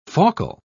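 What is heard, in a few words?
"faucal", British English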